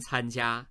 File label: "can1jia1", Chinese